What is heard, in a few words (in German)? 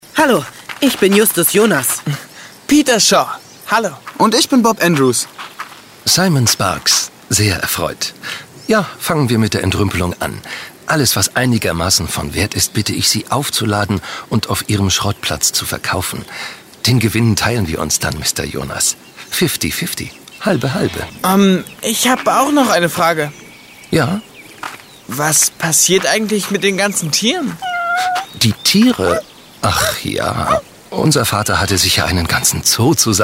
DE Hoerspiel Kids arrogant; DDF